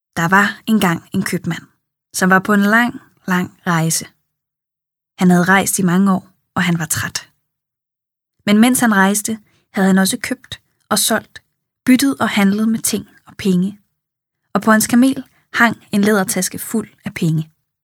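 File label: Danish, Audiobook, female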